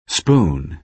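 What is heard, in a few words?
"spoon", American English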